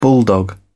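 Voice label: "bulldog", British English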